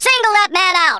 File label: ill get you, Drill Sergeant; Worms Armageddon